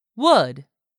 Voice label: "would", English; 3000 words

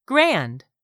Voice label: "grand", English; 3000 words

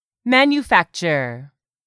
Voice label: "manufacture", English; 3000 words

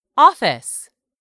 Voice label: "office", English; 3000 words

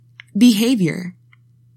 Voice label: "BEHAVIOUR", english; 3000 words

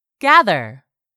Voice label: "GATHER", english; 3000 words

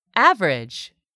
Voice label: "average", english; 3000 words

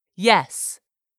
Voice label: "yes", English; 3000 words

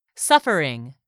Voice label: "suffering", English; 3000 words

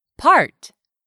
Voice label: "part", English; 3000 words

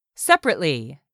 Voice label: "SEPARATELY", english; 3000 words

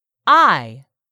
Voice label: "EYE", english; 3000 words

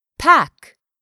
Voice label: "PACK", English; 3000 words

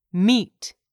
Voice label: "meat", English; 3000 words

